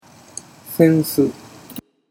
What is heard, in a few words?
"sensu", Japanese